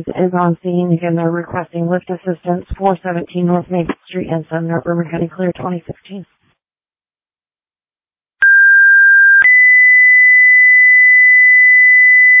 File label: Fire, dispatch; Sumner